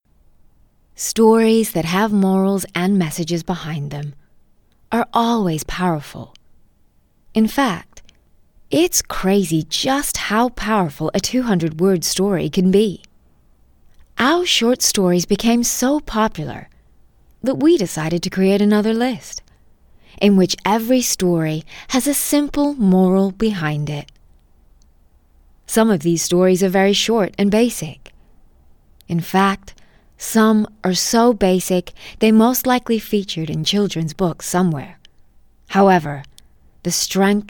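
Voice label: English Australian, female, Explainer, voice over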